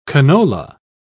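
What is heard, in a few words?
"canola", British English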